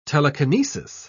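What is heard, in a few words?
"telekinesis", British English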